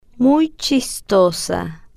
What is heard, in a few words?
"muy Chistosa", spanish